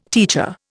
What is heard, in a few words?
"teacher", Indian